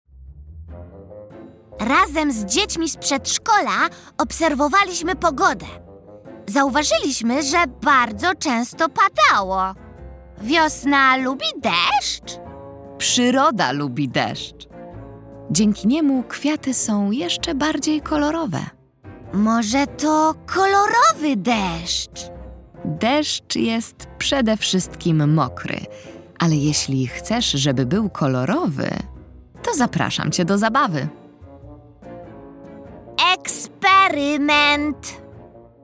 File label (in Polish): narracja; czasopismo mis; wiosna; rozmowa